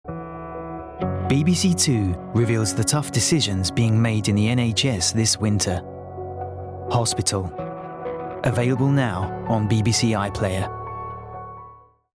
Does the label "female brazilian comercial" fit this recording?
No